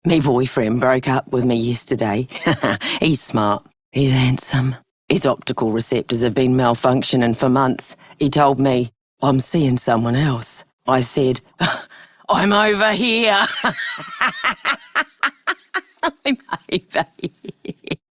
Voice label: Female Scum, en; Dialog; Warframe; Solaris Venus

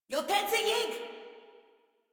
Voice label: Cover Me Female; Warframe; Modular Corpus Female

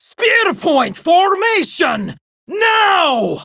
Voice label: Attack Prime Grineer Female; Tau; Battle Barks

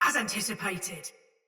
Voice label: Block Soldier Mace Agent Female; Battle; Sinecure Melee

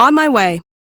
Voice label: Follow, Female; en; Warframe; Railjack Crew; Cephalon Suda

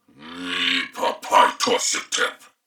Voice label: Patrol Male, en; Dialog; Barks; Battle; Corpus Venus; Warframe; CorpusVenusMaleB

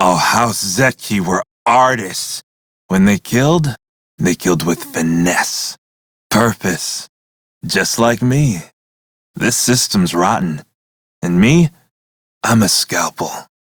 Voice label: crew idle, male; Dialog; en; Warframe; Railjack Crew; Red Veil

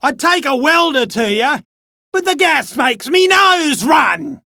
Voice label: Dialog Barks Battle, en; Warframe; Chipper

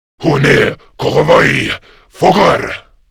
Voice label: Cover Me, Grineer Male; Warframe; Battle Barks

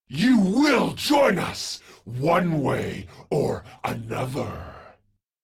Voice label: Taunt, en

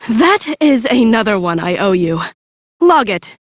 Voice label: Female, en; Crew Heal; Warframe; Railjack Crew; Cephalon Suda

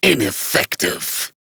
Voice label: Battle Taunt, Dialog; Kuva Lich; Persona C

